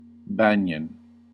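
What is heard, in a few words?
"banyan", US English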